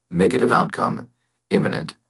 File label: upset; fertig classic games; cybro